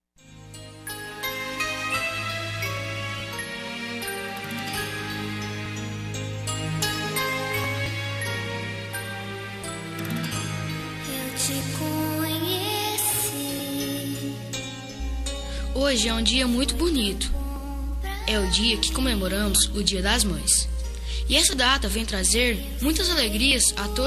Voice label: voz mae masc; dia das maes para minha mae voz masculina infantil

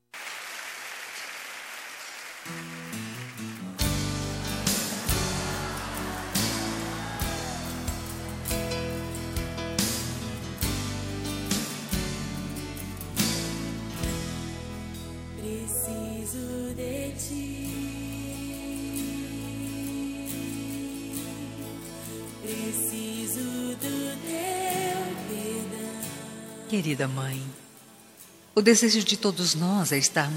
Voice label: mãe gospel, Aniversário de Mãe Gospel – Voz Feminina; Telemensagens para todas as ocasiões

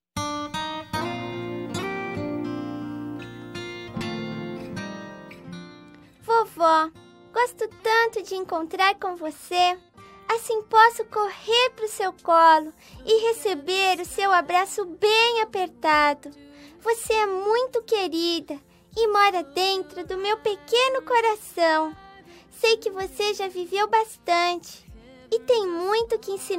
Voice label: dia da avó infantil, infantil voz feminina; dia dos avos para avo infantil voz feminina

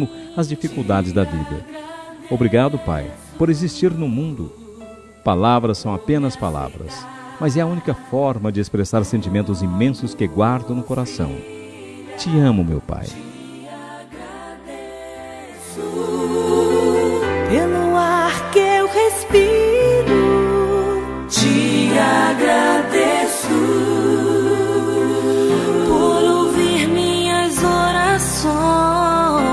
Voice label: hom pai masc, Homenagem para Pai – Voz Masculino

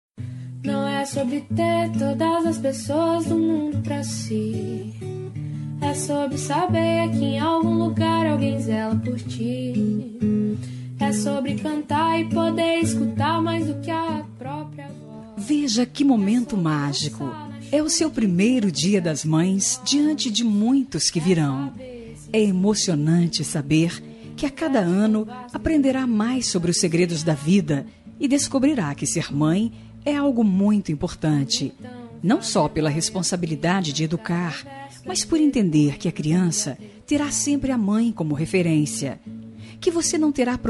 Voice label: futura mae fem; dia das maes para futura mamae voz feminina

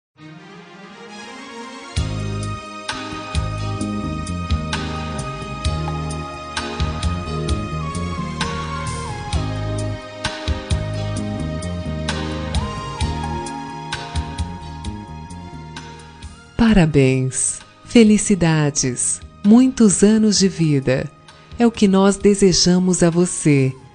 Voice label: pessoa especial fem, Aniversário de Pessoa Especial – Voz Feminina; Telemensagens para todas as ocasiões

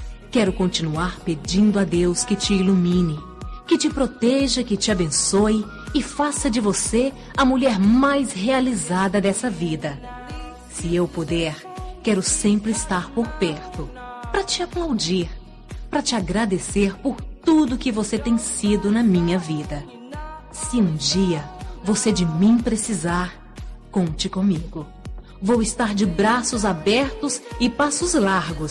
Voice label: cunhada feminina, Aniversário de Cunhada – Voz Feminina; Telemensagens para todas as ocasiões

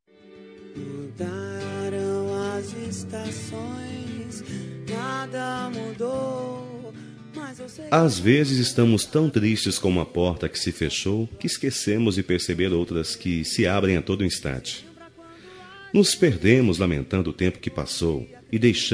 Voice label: Reconciliação Amizade – Voz Masculina; Linda

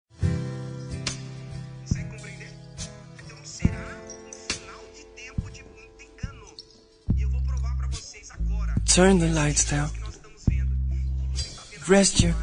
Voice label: Voz Masculina, Telemensagem; Telemensagem de Maternidade

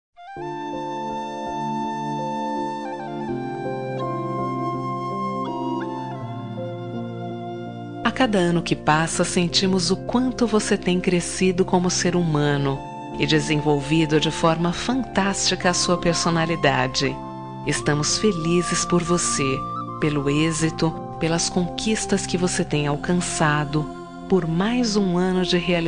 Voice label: Aniversário de Filho – Voz Feminino; Telemensagens para todas as ocasiões

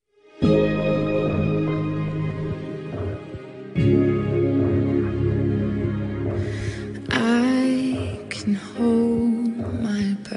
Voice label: Telemensagem de Aniversário de Irmã – Voz Feminina

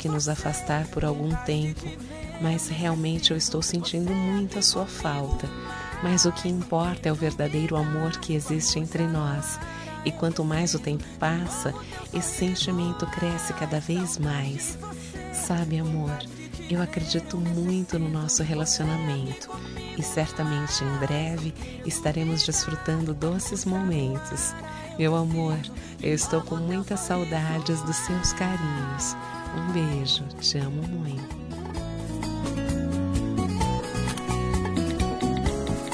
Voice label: Telemensagem Romântica Distante; Voz Feminina

